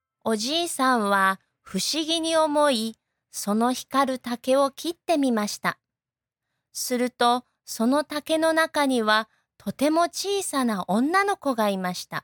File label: Japanese